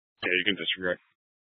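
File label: Sheriff Dispatch, scanner audio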